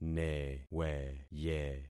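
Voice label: "neweye", concatenated syllables; Affixing experiment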